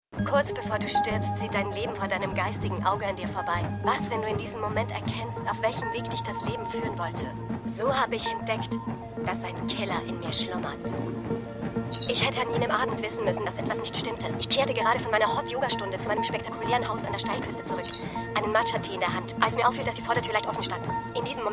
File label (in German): Hoerbuchsprecherin, Stimmproben